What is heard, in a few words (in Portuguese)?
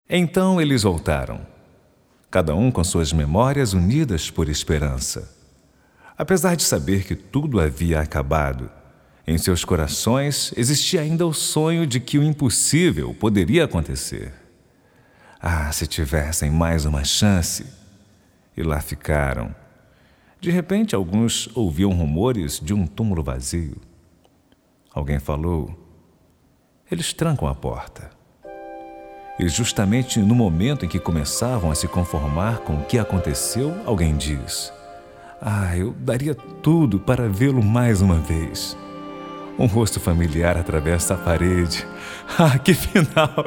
Narração